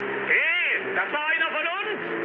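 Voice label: German player killed ally mood low, speech; War Thunder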